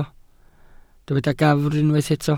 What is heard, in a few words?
"gafr", Welsh